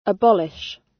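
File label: "abolish", British; Crime and punishment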